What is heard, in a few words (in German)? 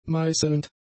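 "meißelnd", German; adjectives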